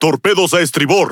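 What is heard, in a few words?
Spanish speech alarms torpedo right; World Of Warships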